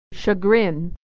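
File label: "chagrin", American English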